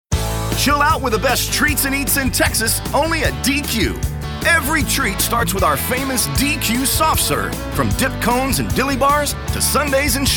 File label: English Radio; June Treat Montage